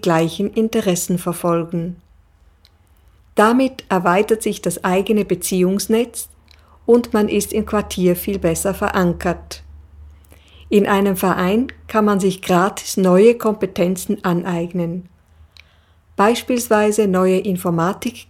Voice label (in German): deutsch